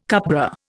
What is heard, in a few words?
"cupbearer", Indian